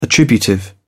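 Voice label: "attributive", British English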